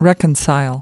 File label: "reconcile", us